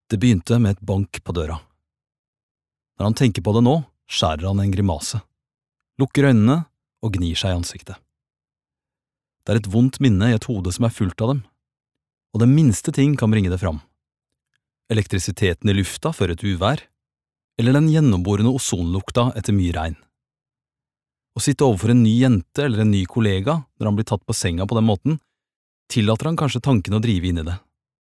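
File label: no audiobook, Norwegian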